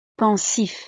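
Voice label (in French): "pensif", French; pensativo, pensativa; estados animo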